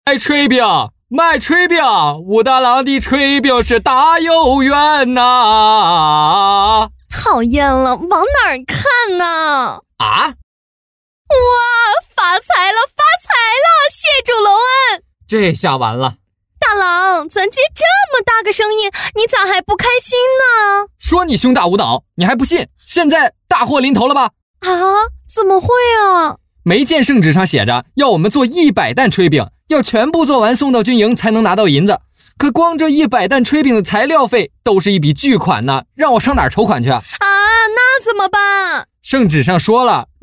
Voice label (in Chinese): 男 动漫 动画配音; 商业保理; 商业保理动画配音